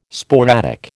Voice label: "sporadic", American

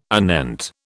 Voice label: "anent", British

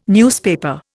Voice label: "newspaper", Indian

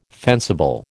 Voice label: "fencible", American

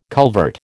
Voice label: "culvert", American

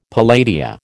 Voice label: "palladia", American

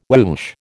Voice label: "whoosh", American

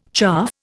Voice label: "chaff", Indian

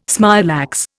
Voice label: "smilax", Indian

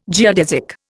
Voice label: "geodesic", Indian

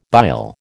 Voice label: "bile", American

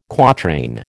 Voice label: "quatrain", American

